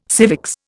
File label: "civics", Indian